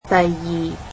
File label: "second", Cantonese